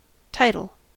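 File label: "title", American English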